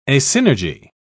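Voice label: "asynergy", British English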